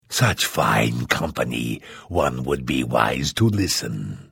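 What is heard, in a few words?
Hello, Vo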